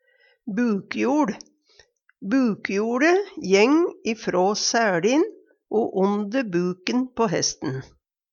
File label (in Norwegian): bukjoL, Numedalsmål; reim under buken på dyr som ber sele eller sal